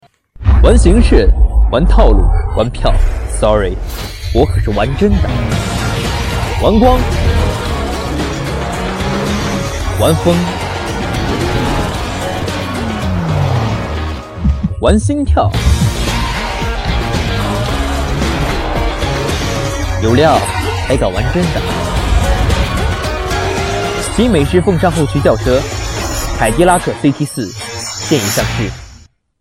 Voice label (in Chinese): 品牌 广告